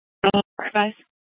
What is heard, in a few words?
Police Department, Dispatch; Mesa Police Department; 1 Fiesta Dispatch